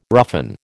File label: "roughen", American